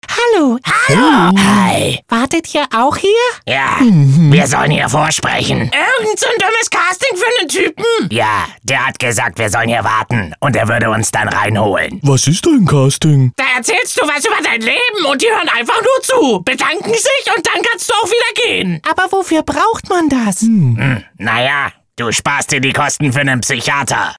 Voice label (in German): Comic; LOFT VOICES